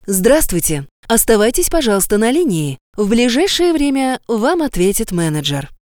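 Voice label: working hours IVR female; Rabochee vremya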